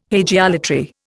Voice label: "hagiolatry", Indian